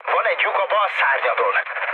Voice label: left wing, speech hun; War Thunder